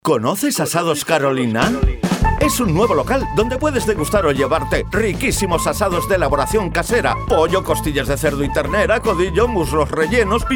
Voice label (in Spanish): LOCUTOR DEMO CUÑA PUBLICITARIA